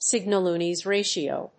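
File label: "signal-noise ratio", English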